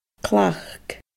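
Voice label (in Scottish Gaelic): "cleachd", Scottish Gaelic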